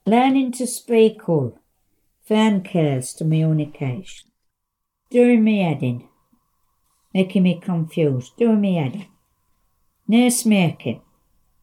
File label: England Yorkshire Female 70s monologue